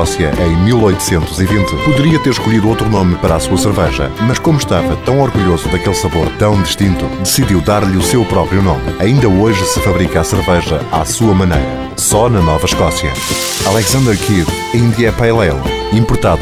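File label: Portuguese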